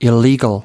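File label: "illegal", US English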